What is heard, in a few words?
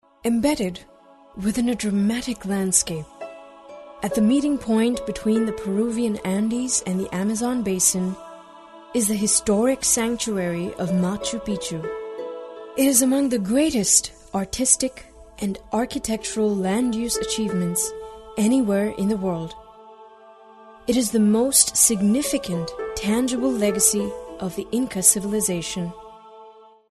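Tamil, female, English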